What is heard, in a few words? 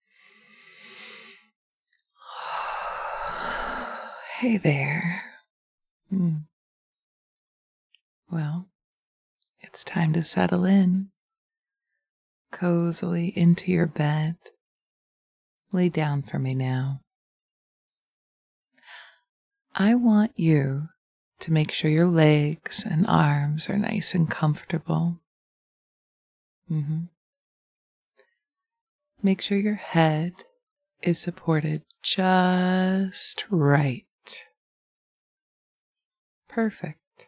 [F4M] and [F4A] [SFX] [Hypnosis]; Erotic audio; Good News, Bad News